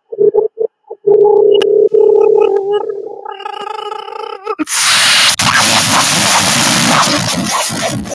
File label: voice message